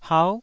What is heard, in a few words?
"hau9", taiwanese